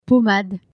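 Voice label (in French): "pommade", French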